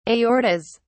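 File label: "aortas", British English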